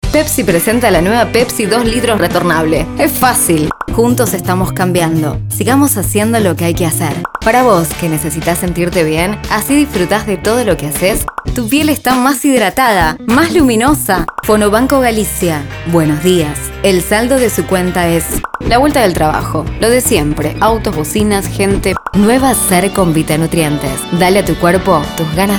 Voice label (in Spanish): rioplatense